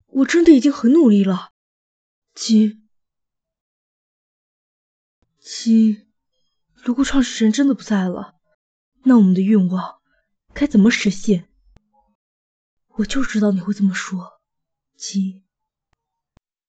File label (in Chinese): 角色配音 模仿; 凹凸世界紫堂幻